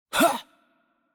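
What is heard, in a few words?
Chinese attack light voice; bloom minigame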